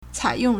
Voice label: "cai3yong4", Chinese

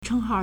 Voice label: "cheng1hao4", Chinese

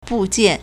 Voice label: "bu4jian4", Chinese

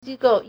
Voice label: "ji1 gou4", Chinese

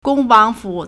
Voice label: "gong1 wang2 fu3", Chinese

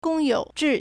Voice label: "gong1 you3 zhi4", Chinese

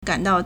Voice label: "gan3 dao4", Chinese

